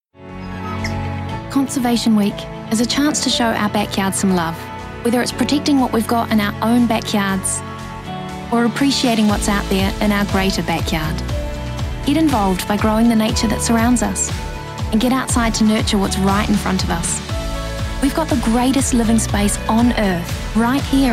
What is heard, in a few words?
Voice Actor; BigMouthVoices